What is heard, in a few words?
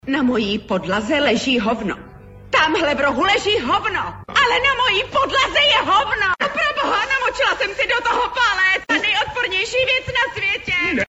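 female Czech drama mix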